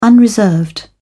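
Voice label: "unreserved", English, British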